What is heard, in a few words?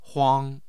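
"huang", Mandarin Chinese, tone 1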